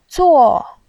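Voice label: "zuo" Mandarin Chinese, fourth tone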